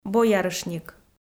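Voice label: "боярышник", Komi-Zyrian, female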